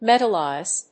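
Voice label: "metalize", English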